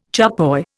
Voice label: "charpoy", Indian